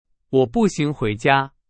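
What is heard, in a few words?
Chinese; hsk4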